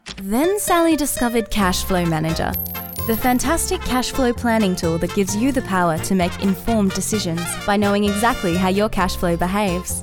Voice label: Commercial Voiceover

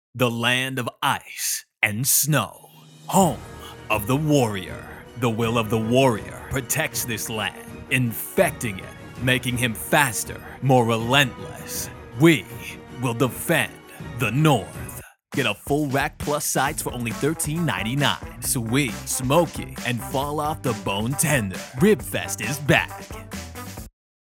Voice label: TV Promo

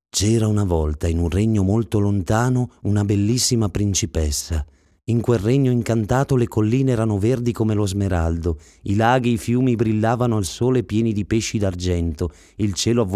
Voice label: ITALIAN MALE AUDIOBOOK; ONCE UPON A TIME

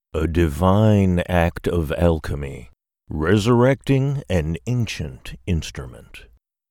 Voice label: TRAILER DEEP EERIE

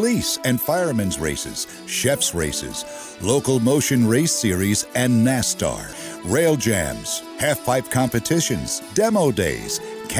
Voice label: On Hold

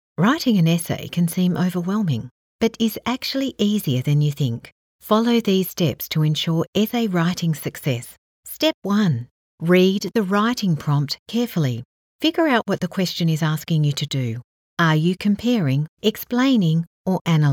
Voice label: E learning

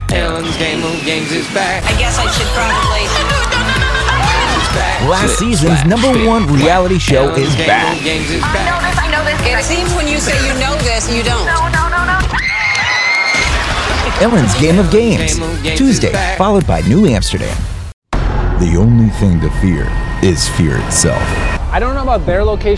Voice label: Promo

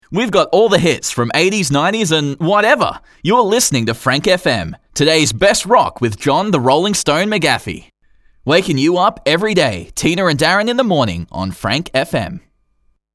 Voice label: Radio Sting

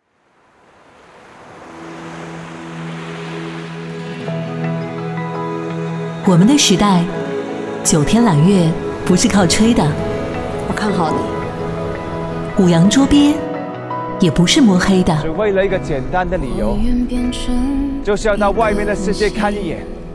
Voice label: Radio

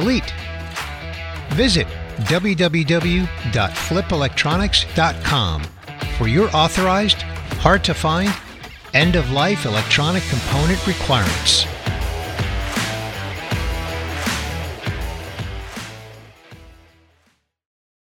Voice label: Podcast outro with music

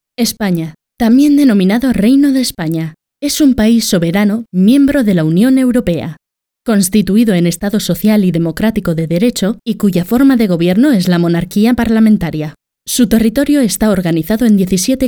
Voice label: female spanish castilian

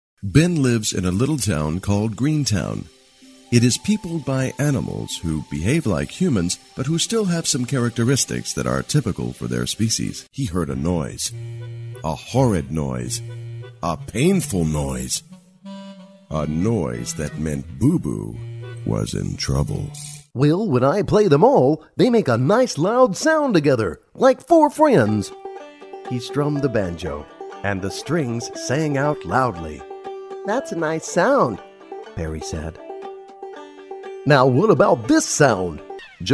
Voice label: Children VO

